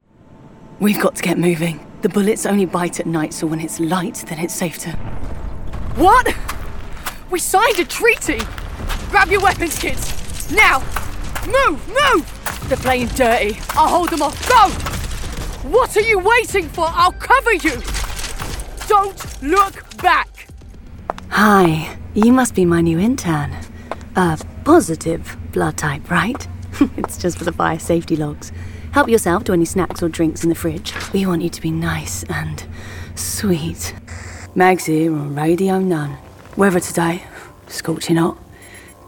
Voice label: Computer Games, female

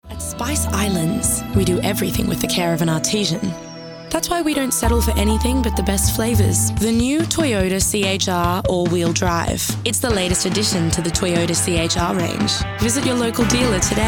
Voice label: Commercials, female

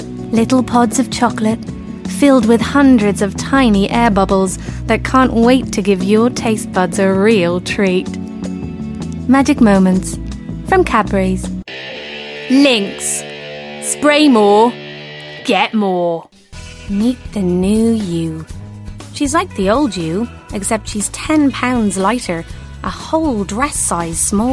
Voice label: Commercials

